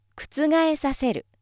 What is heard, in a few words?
female